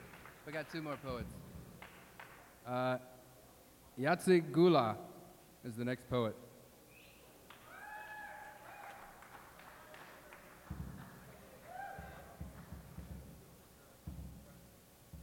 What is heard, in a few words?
poetry